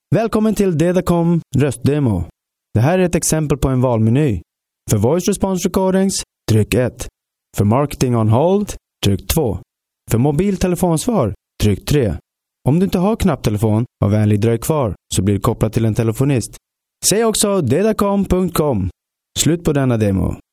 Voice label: Voice over Zweeds man

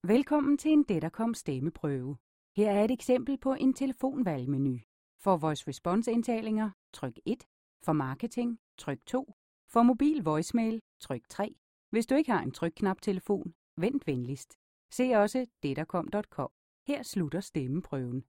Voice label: Voice over Deens vrouw

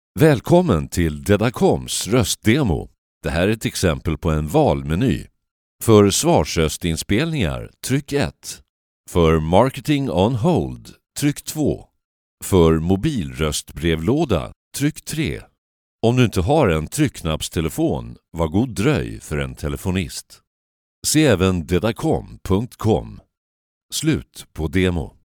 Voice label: Voice over Zweeds man

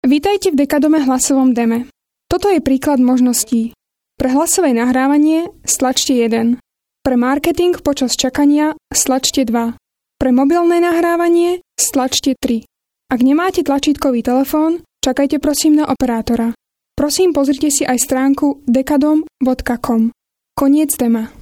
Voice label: Voice over Slowaaks vrouw

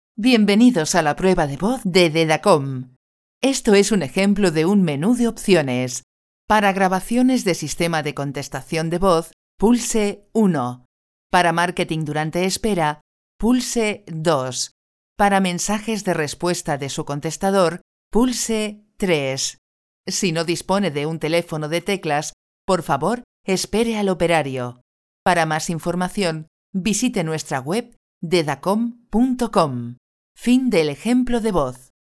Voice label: voice over Spaans Europees vrouw

